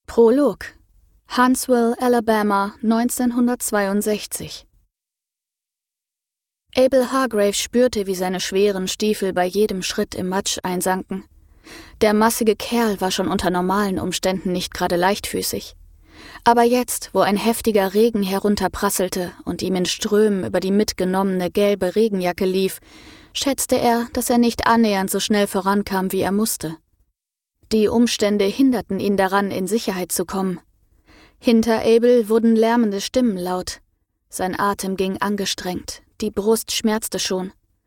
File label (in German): Hoerbuch; Spielplatz der toten Kinder